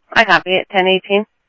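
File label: Simulcast police department, scanner audio trunk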